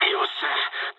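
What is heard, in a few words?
bomb success mood med, speech japanese; War Thunder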